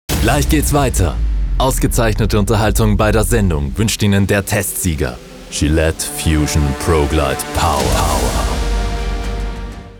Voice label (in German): WERBUNG; GILETTE